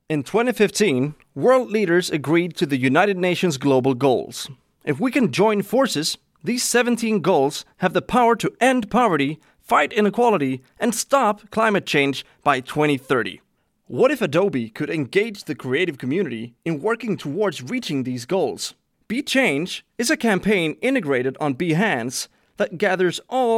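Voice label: English Voice Over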